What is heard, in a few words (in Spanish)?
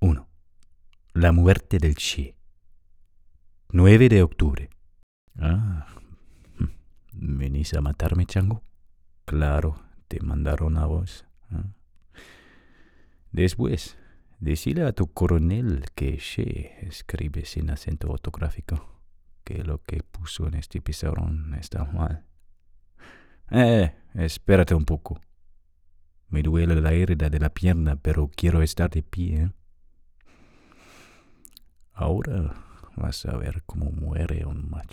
español; el muerte de che